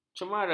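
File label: "cemara", Malay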